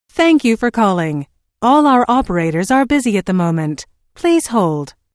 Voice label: Telephone prompt: Thank you for calling all our operators are busy at the moment please hold, Recorded Voice; Pre-recorded telephone on-hold message